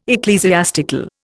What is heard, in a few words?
"ecclesiastical", Indian